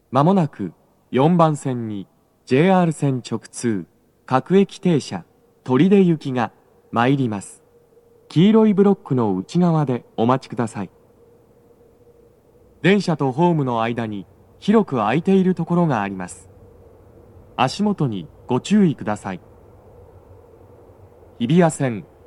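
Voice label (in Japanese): 接近放送 男声, 各駅停車 取手行(混雑付帯); 日比谷駅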